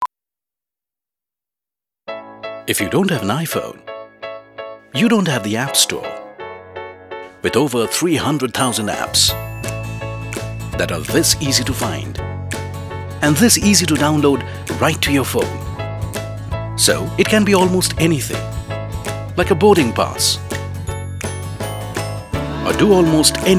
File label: TV Commercial